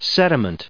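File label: "sediment", English, us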